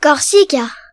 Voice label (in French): "corse", br, france; gcompris